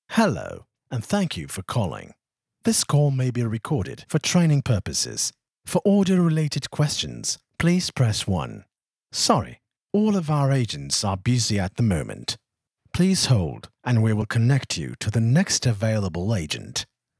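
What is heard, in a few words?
Turkish, male, English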